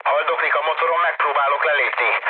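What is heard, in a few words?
Hungarian damaged engine mood low, speech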